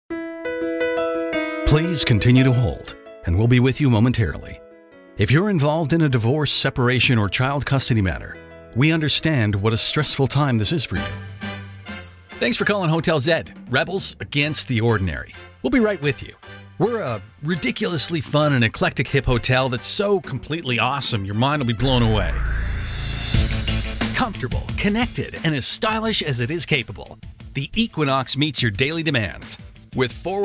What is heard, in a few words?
english american ivr